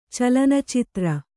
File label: "ಚಲನಚಿತ್ರ", kannada